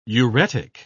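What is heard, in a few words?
"uretic", British English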